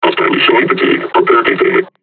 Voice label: taunt, combine charger; Half Life Alyx

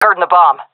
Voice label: at loose bomb, fem; vo; agents; Source Model Packs; Counter Strike Model Pack; CS2

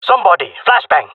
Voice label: request flash, male; player vo; Counter Strike Model Pack; CS:GO

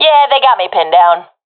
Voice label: pinned, professional fem; vo; Counter Strike Model Pack; CS:GO

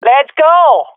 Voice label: round start, swat fem; Counter Strike Model Pack; CS:GO

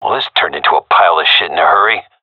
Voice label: scared, seal diver; vo; agents; Source Model Packs; Counter Strike Model Pack; CS2

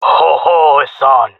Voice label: attacking, jungle male; player vo; Counter Strike Model Pack; CS:GO

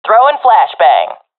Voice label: throwing flashbang, professional fem; vo; Counter Strike Model Pack; CS:GO

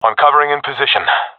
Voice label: in position, professional epic; vo; Counter Strike Model Pack; CS:GO

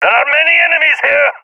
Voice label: request backup, balkan; vo; balkan epic

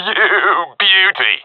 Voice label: cheer; vo; Counter Strike Model Pack; CS:GO

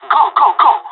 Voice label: radio lets go, pirate; Counter Strike Model Pack; CS:GO

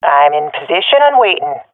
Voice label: waiting here, swat fem; vo; agents; Source Model Packs; Counter Strike Model Pack; CS2

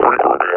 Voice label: retreat, combine officer vo

